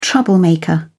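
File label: "troublemaker", British English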